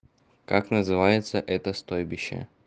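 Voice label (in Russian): Как назыв это стбойбище, русский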